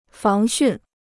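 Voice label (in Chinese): "防汛" Chinese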